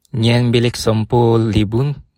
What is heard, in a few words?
toilet, bonggi